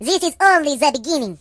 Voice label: Firstblood, Russian; hedgewars